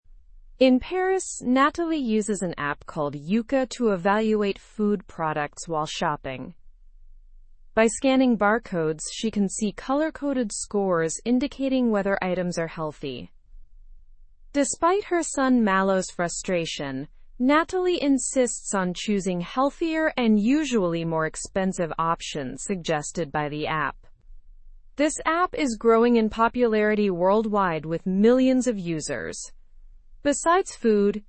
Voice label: english through news upper intermediate; digital applications encourage healthier eating habits

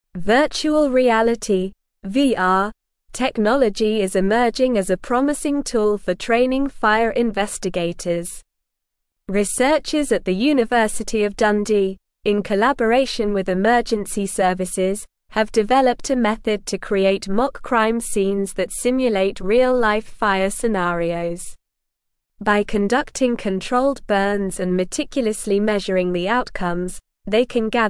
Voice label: English SLOW Reading

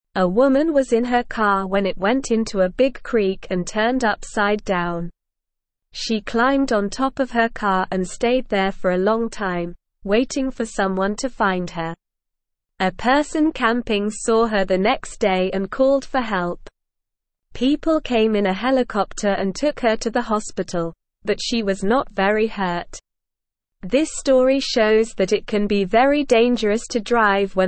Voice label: English Lower Intermediate SLOW Reading; Ladys Car in Water Stay Safe and Call for Help; English Newsroom

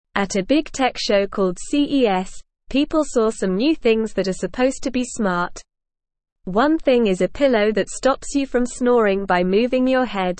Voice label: English Lower Intermediate NORMAL Reading; New Inventions at Big Show Are They Really Smart; English Newsroom

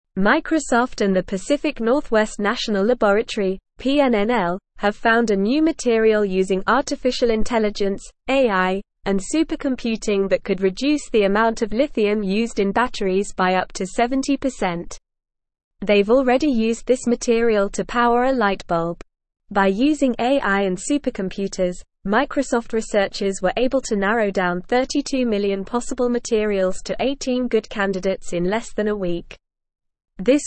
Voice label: English NORMAL Reading; English Newsroom; Microsoft and PNNL Discover Material to Reduce Li

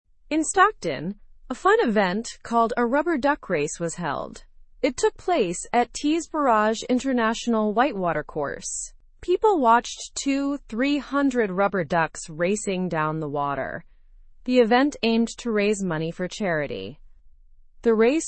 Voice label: learn english through news lower intermediate; stockton duck race helps raise charity funds

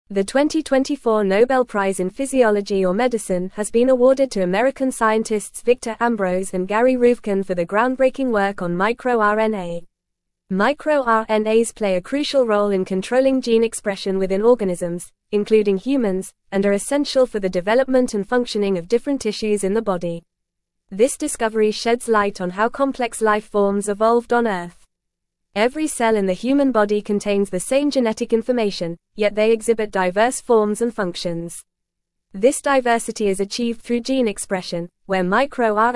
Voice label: English Newsroom Advanced FAST Reading; 2024 Nobel Prize MicroRNAs Role in Gene Regulation

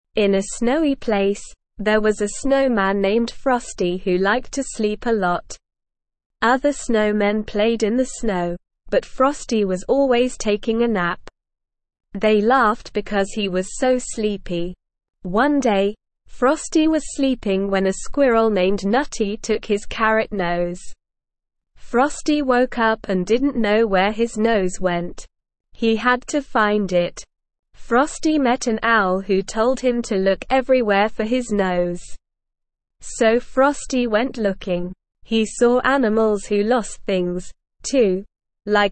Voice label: ESL Short Stories for Kids Beginner SLOW Reading; The Sleepy Snowman

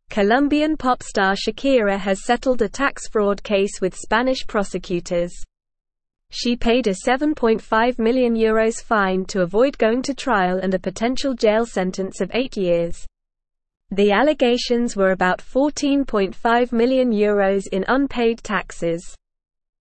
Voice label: English Upper Intermediate NORMAL Reading; English Newsroom

